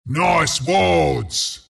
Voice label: announcer item purchase; Dota 2; bristleback announcer